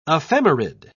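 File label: "ephemerid", English, British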